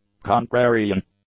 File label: "contrarian", English; adjectives